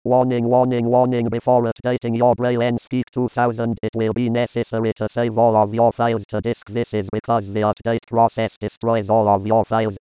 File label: monolog